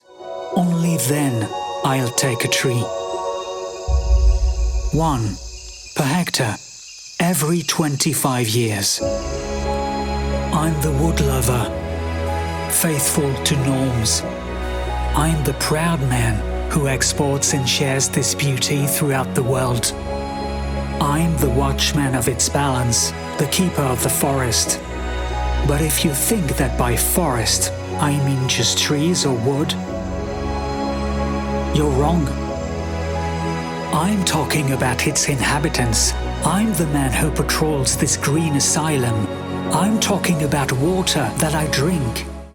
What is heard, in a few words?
Film institutionnel, English - Passionate Narration, Male; Corporate; Compagnie des Bois du Gagon